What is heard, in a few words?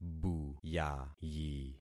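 "buyayi", concatenated syllables; Affixing experiment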